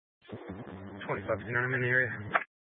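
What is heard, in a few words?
Sheriff Dispatch, Scanner audio recording archive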